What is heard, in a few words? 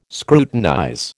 "scrutinize", American